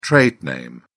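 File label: "trade name", British English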